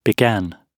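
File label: "began", British English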